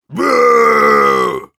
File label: jeers, ru; Engineer